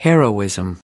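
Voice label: "heroism", American English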